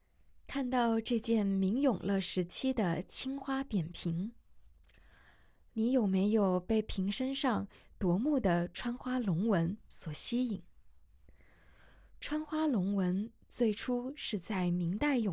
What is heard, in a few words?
Putonghua